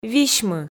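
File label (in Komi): "висьмы", Komi, female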